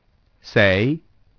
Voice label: "SIX", ITALIAN